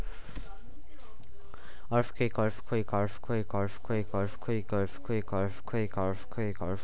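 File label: "earthquake", spoken word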